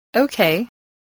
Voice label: "okay", voice, spelling

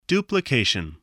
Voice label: "duplication", English

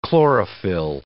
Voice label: "chlorophyll", English

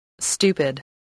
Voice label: "stupid", spelling

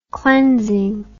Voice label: "cleansing", English

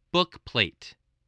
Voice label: "bookplate", spelling

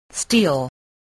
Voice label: "steal", voice

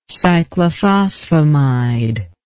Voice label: "cyclophosphamide", english

